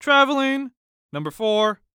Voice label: Human Voice Male Young Man Basketball Referee Traveling Number Four; Sports